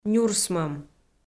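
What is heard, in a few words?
"нюрсмӧм", Komi, female; kpv audio dict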